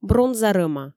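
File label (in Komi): бронза рӧма, Komi, female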